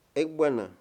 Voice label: "robar", Mayo

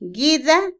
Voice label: lágrima, Otomí, mujer; INALI

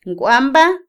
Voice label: mentir, Otomí, mujer; Emociones; INALI

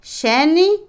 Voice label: Otomí, mujer; repartir; Posesión; Vocabulary; INALI

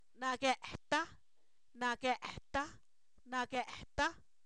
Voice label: está doblado, Zapoteco, san miguel albarradas; verbos; INALI

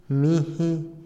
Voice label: alquilar, Otomí, hombre; Posesión; INALI

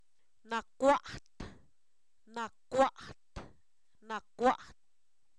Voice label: Zapoteco, san miguel albarradas; sordo; adjetivos

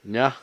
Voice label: "sol", Cucapá

